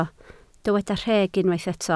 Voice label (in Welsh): "rheg", Welsh